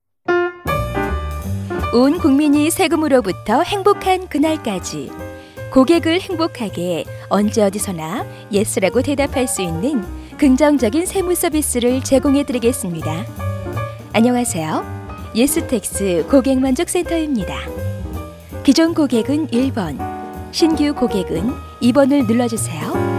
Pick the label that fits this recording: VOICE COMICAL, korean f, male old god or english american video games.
korean f